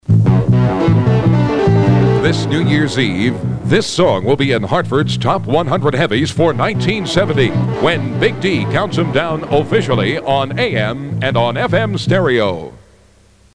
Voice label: promo; Countdown1970